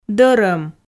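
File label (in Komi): "дӧрӧм", Komi, female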